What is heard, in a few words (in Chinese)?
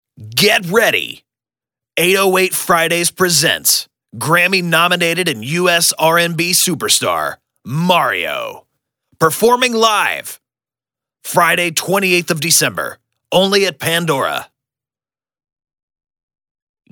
外籍英男 干音 宣传片; 潘多拉